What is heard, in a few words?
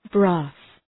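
"brass", English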